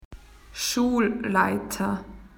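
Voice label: "headteacher", Australian English; PictureDictionary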